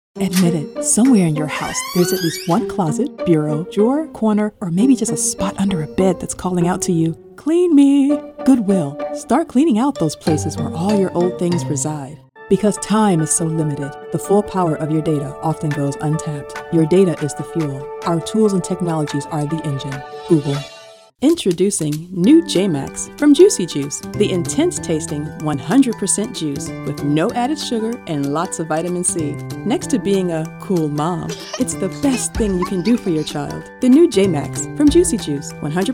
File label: Commercial VO